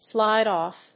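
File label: "slide off", English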